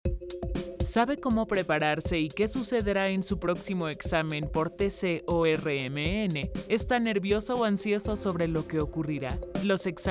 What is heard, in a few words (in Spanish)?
female; 60s